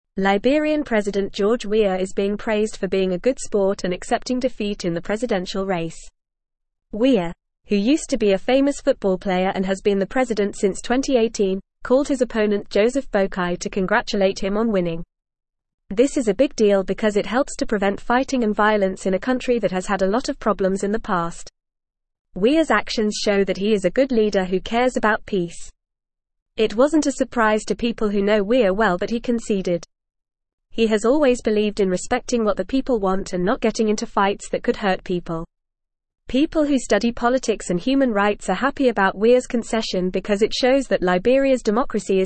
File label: Upper Intermediate FAST Reading, English; Liberian President George Weah Praised for Sportsma; English Newsroom